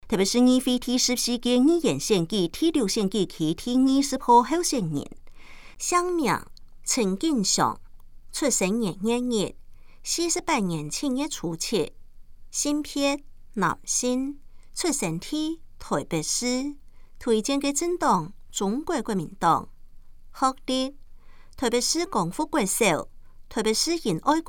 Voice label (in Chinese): 客語; 陳錦祥; 臺北市議會第14屆議員第6選舉區 (大安、文山區)候選人介紹; 有聲公報